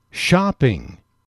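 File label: "shopping", English, male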